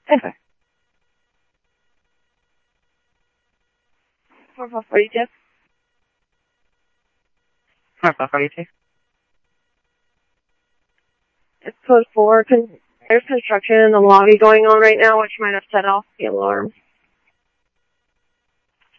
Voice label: Tempe Police, scanner audio; TEMPE PD A04; SimulcastF-Tempe